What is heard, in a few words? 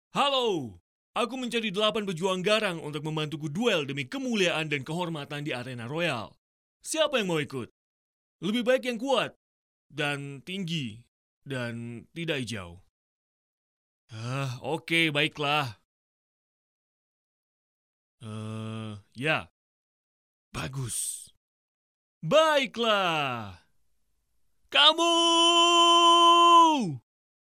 indonesian video games